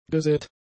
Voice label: "gesät", German; adjectives

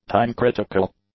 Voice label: "time-critical", English; adjectives

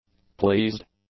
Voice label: "pleased", english; adjectives

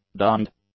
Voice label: "donned", English; adjectives

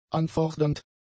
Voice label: "anfordernd", German; adjectives

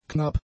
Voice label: "knapp", German; adjectives

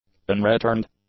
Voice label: "unreturned", english; adjectives

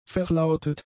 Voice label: "verlautet", German; adjectives

